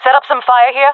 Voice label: request fire, jungle fem; vo; Counter Strike Model Pack; CS:GO